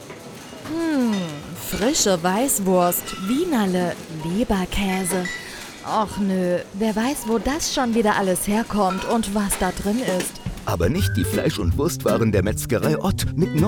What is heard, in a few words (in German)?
werbespot radio; metzgerei ott; sw1 bayern